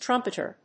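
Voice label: "trumpeter", English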